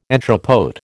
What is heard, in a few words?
"entrepôt", American